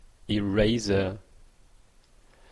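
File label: "eraser", Canadian English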